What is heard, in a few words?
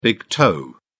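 "big toe", English, British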